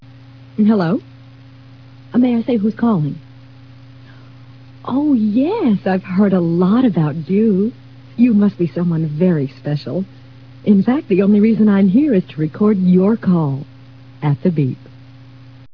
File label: Voice Mail